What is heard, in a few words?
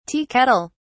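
"tea kettle", British English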